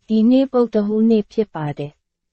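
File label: Burmese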